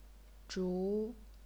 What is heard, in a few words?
"zhu2", chinese pinyin